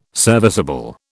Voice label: "serviceable", British